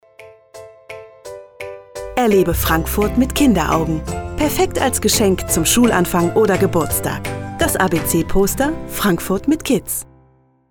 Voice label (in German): Werbung Kinderposter